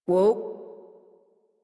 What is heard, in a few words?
Horse Stop Walk Adult; Warframe; Duviri